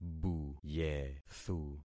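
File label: "buyethu", concatenated syllables; Affixing experiment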